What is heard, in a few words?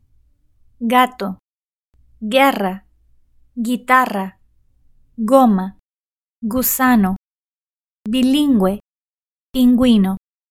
"gato", Spanish